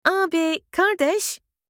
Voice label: big brother in turkish

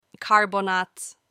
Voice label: baking soda in turkish

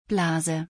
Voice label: Article in German

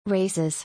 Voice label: "Races", English

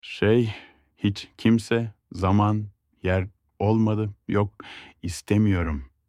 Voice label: nothing in turkish

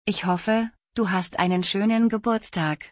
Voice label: I hope you have a good birthday in German; تولدت مبارک به آلمانی

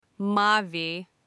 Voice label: blue in turkish

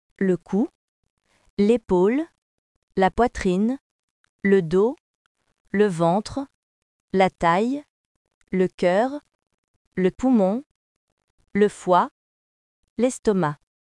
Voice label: Body parts in french

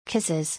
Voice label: "Kisses", English

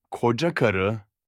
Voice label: my spouse in turkish

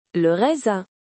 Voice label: grape in french

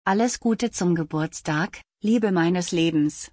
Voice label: Happy birthday to the love of my life in German; تولدت مبارک به آلمانی

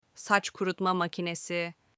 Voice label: hair dryer in turkish

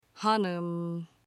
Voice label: madam in turkish

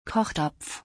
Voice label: Pot in German

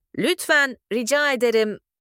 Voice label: please in turkish

